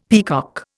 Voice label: "peacock", Indian; Dictionary